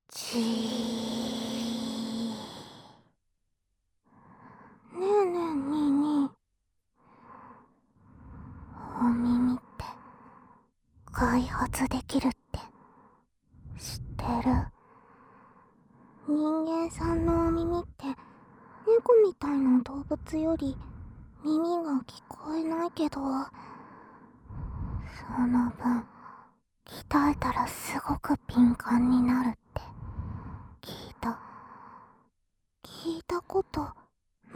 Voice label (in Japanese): 双子のお耳開発両耳ふ～＆キス, ASMR; 反転